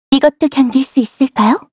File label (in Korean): Vox Skill, kr; Xerah; kings raid